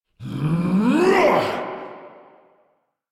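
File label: Attack, English; Warframe; Captain Vor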